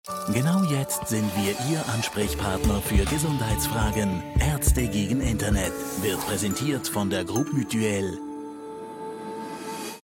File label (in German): billboard; Ärzte gegen Internet – Billboard